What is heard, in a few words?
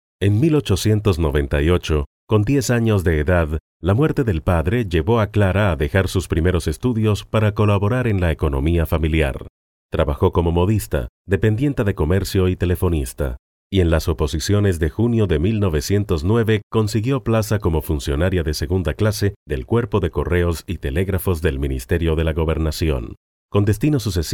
venezuelan voiceover locutor venezolano